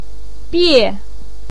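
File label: "bie" fourth tone, Mandarin Chinese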